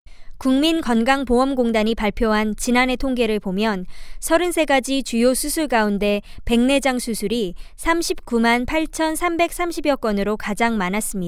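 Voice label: Korean, General; GoLocalise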